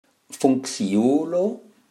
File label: "funkciulo", Esperanto